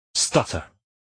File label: stutter